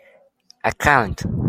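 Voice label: "account", Japanese, male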